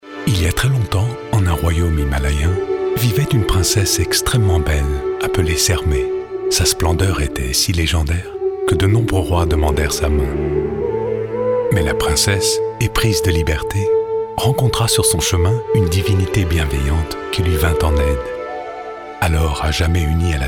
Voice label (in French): Conte voix off voice over; Chanel